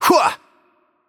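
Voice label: Attack Heavy, German; de DE; VO; bloom minigame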